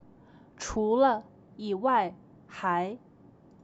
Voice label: 除了…以外，还… chu le yi wai hai, chinese; cyberchinese

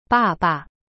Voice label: "爸爸" ba ba, chinese

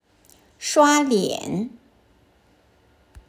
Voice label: "刷脸 shualian", Chinese; cyberchinese

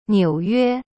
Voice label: "纽约", Chinese; niu yue; cyberchinese

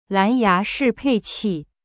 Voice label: "lan2ya2pei4zhi4qi4", Chinese; cyberchinese

